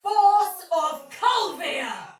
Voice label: Attack Heavy, Soldier Female, Battle; Dialog; Barks; Sinecure

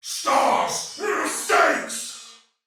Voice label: Attack Heavy Soldier Male, Battle; Dialog; Barks; Sinecure

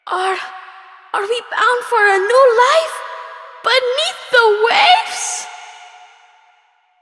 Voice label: Child

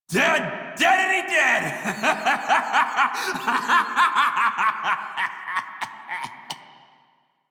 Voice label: Crew Enemy Defeat Male en; Dialog; Warframe; Railjack Crew; Red Veil

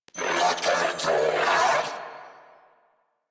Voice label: Cover Me, Female; en; Warframe; New War; Narmer Grineer

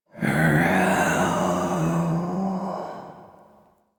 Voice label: Intelligible Whisper C; Rell; Warframe; Harrow

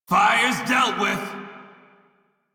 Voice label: Crew Fix Fire Male en; Dialog; Warframe; Railjack Crew; Red Veil

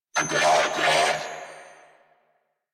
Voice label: Patrol, Female; New War; Narmer Grineer

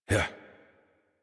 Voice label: Horse Start Adult; Warframe; Duviri

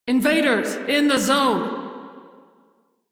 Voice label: crew enemy spot, female; Railjack Crew; Steel Meridian

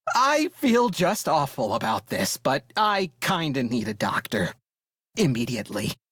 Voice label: Crew Need Revive, Male, en; Dialog; Warframe; Railjack Crew; Perrin Sequence

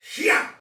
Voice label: Attack, Soldier Male; Sinecure

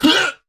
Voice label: Pain, Barks Battle; Warframe; Dax; Dax Knight

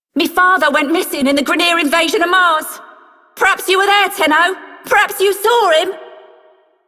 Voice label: Female Generic, Female One, en; Dialog; Warframe; Solaris Venus

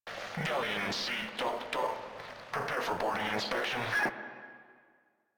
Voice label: Controller, Radio Interceptions

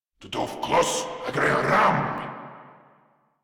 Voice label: Attack, Grineer Male

